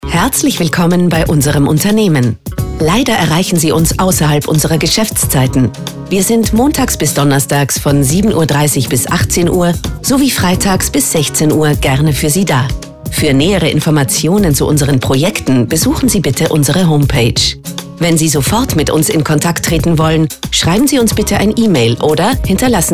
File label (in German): Telefontext